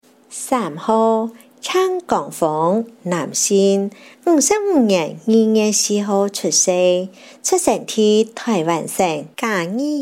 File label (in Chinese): 客語; 高雄市; 高雄市市長議員有聲選舉公報; 第十選區